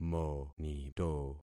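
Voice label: "monito", concatenated syllables; Affixing experiment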